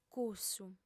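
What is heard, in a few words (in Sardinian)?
"cussu", female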